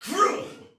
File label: Attack, Soldier Male; Sinecure